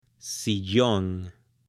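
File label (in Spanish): "SILLON", Spanish, male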